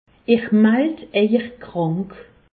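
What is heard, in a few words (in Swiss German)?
"krank", Alsatian; medecine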